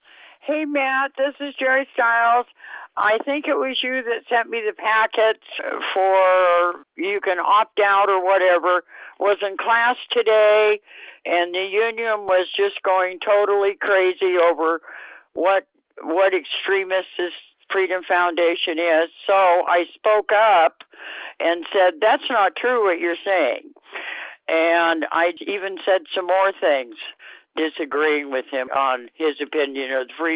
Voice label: Voicemail